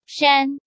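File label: "Shān", Chinese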